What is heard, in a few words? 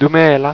"Dumela", Sesotho